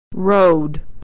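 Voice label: "rode", American English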